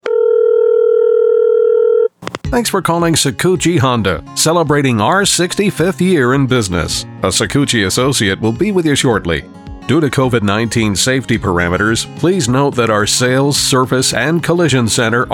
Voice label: Phone and IVR

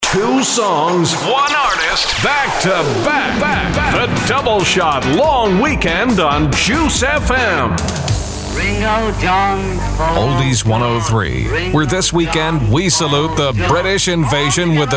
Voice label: Broadcast Imaging

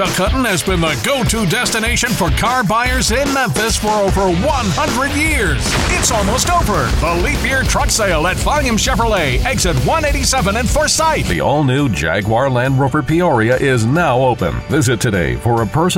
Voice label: Auto VO

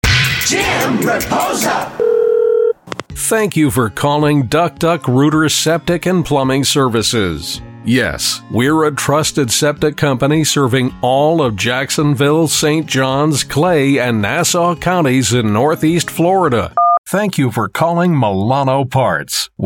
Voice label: Phone & IVR

